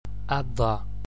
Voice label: broad d & dt, gaelic